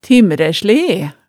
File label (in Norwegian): "timræslee", hemsedalsmaalet tale